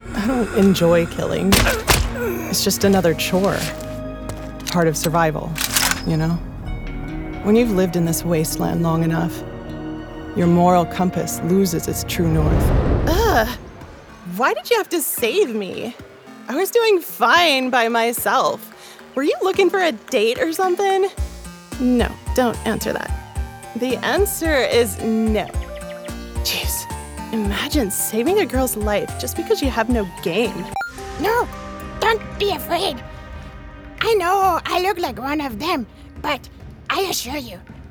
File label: character